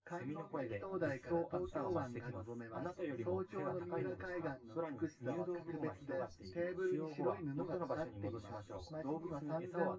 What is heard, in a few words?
male synth conv sim; SiSEC10; underdetermined